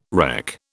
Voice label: "reck", British